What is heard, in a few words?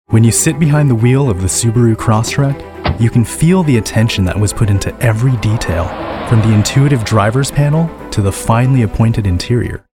english american automotive